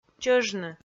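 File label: "чӧжны", Komi, female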